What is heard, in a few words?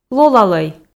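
"лолалӧй", Komi, female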